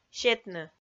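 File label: "сетны", Komi, female; kpv audio dict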